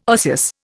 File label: "osseous", Indian